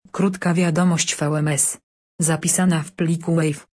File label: voice small